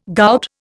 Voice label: "gout", Indian

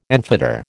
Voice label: "enfetter", American

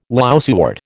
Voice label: "lousewort", American

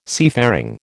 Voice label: "seafaring", American

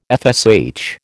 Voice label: "FSH", American

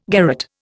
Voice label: "garrotte", Indian

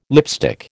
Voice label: "lipstick", American

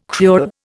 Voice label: "cruel", Indian

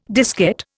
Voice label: "diskette", Indian

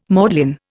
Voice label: "maudlin", Indian

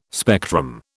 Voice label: "spectrum", British

